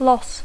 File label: "loss", English